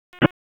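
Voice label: Police Spec Ops Tac, radio; Raleigh; p25; wake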